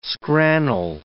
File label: "scrannel", English